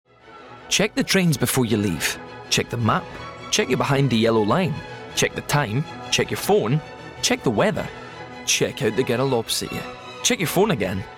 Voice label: Commercial; Money